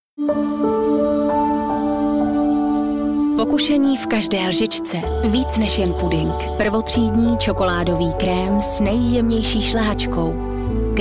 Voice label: czech commercial